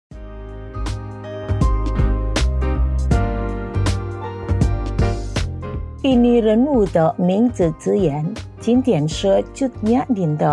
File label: Mandarin quote; Kata Bijak; CUT NYAK DIEN